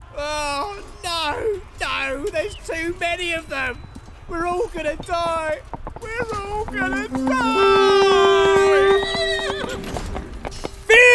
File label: comedy voice reel